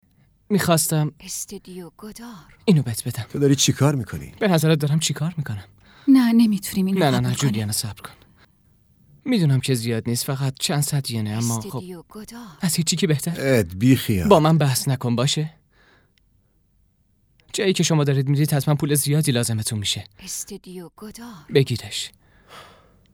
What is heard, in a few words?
dub